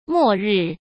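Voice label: "末日", Mandarin Chinese; hsk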